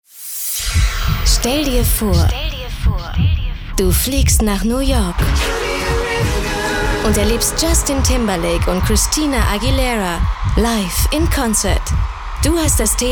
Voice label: Minipromo

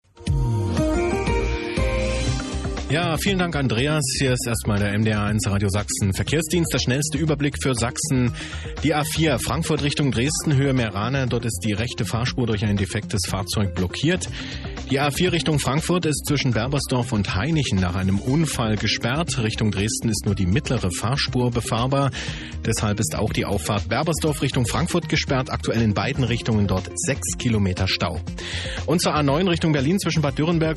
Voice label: moderation

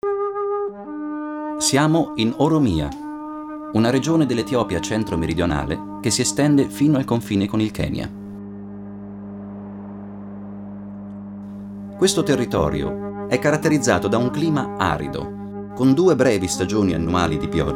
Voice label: Dokumentation; The Well

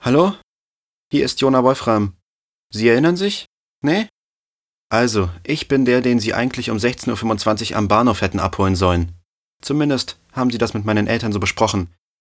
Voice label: Synchron Hörspiel

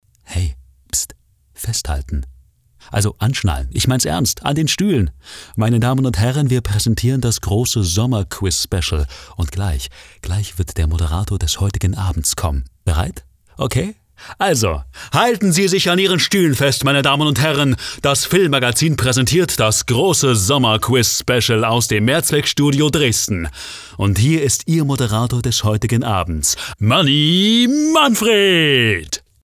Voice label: Live Ansage Off Stimme

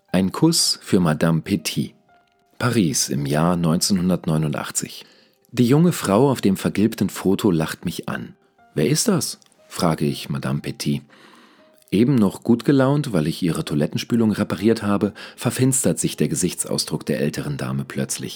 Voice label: Hörbuch; madame petit

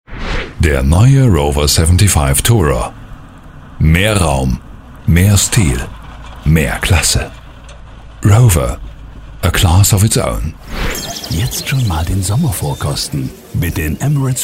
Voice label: Collage Werbung

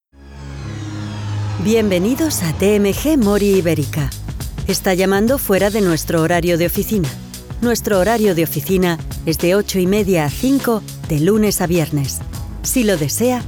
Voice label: Telefonschleife; Mori Iberica

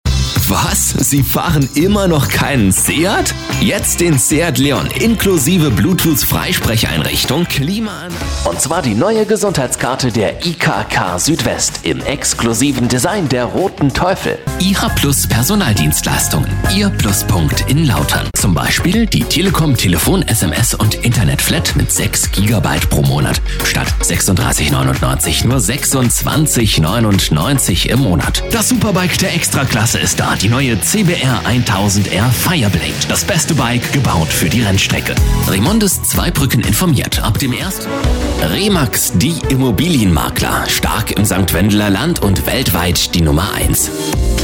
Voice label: Hoereindruck Werbung

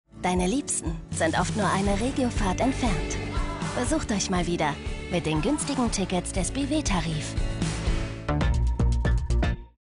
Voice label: Werbung; DB Regio

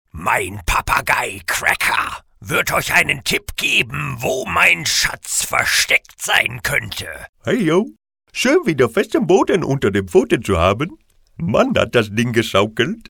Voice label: Trickstimmenmix deutsch

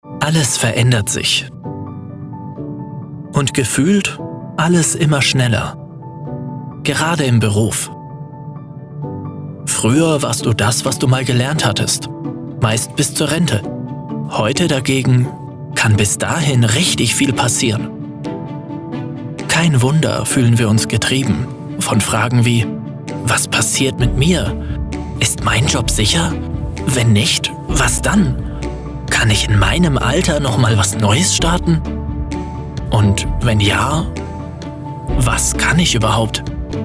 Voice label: Erklaervideo; Bundesagentur fuer Arbeit

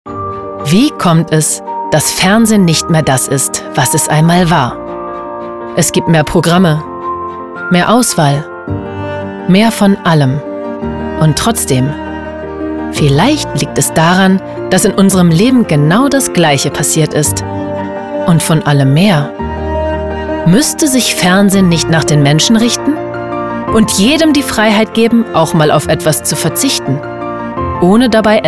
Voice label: Gedankentext, Sprecher

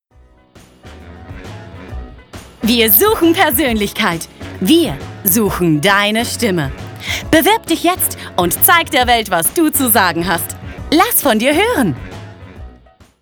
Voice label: Werbung

